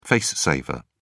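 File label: "face saver", British English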